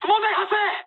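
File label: aircraft damaged mood high, speech japanese; War Thunder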